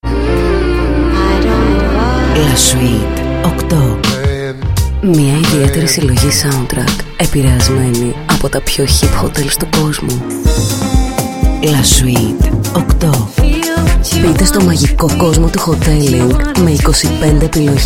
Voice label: Radio Spot